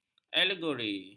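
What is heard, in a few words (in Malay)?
"alegori", Malay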